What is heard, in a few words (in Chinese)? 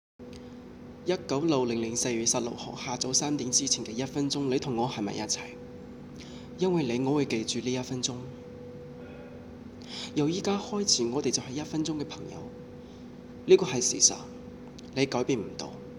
粤语